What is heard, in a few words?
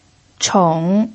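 "chong" third tone, Mandarin Chinese pinyin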